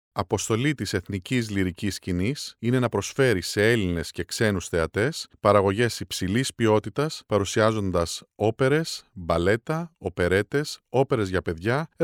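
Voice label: Greek, Corporate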